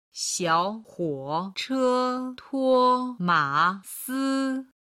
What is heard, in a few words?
"xiao hou che", Chinese